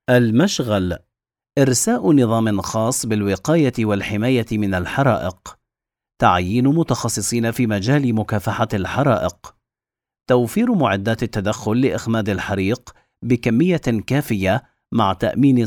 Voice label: male Arabic smooth, recited, commercial; 25-40